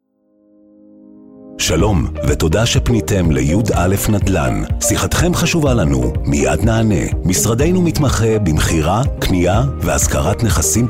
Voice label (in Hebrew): מוזיקה רקע כולל